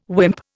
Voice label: "wimp", Indian